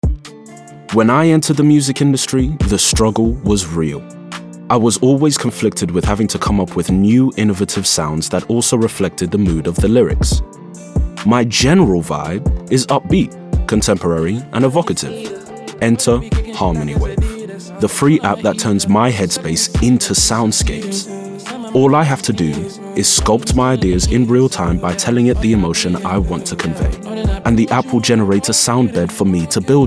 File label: English M Conversational